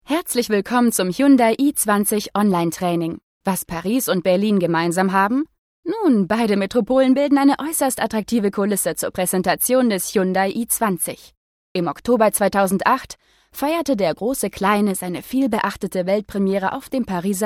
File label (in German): elearning, deutsch frauen